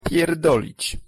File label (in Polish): wymowa po polski pierdolic